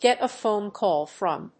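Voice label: "get a phone call from", English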